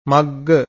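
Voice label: "magga", Pali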